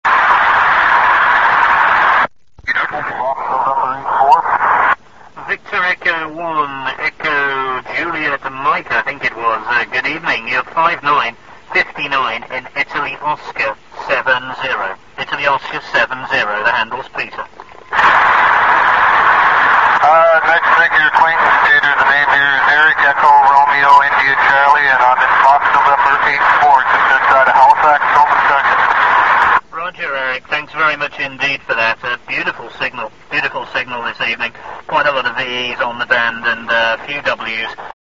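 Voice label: amateur radio contact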